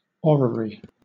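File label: "orrery", British English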